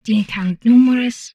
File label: humanoid female; Stellaris